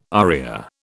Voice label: "aria", British

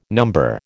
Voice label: "number", American

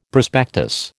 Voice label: "prospectus", American

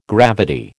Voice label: "gravity", American

